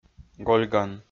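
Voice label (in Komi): "гольган", Komi-Zyrian, male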